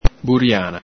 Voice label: "buriana", Veneto

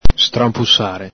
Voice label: "stranpusare", Veneto

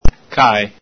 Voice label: "cae", Veneto

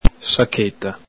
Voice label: "sacheta", Veneto

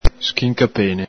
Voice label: "schincapene", Veneto

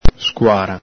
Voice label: "scuara", Veneto

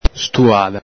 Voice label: "stuada", Veneto

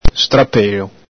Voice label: "strapelo", Veneto

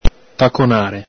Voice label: "taconare", Venetian

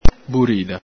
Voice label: "burida", Veneto

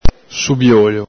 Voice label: "subiolo", Veneto

